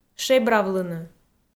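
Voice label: "шебравлыны", Komi, female; kpv audio dict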